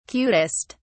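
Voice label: "cutest", British English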